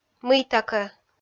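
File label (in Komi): "мыйта кӧ", Komi, female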